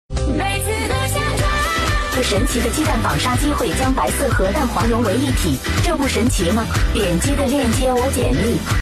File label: chinese; jet2holiday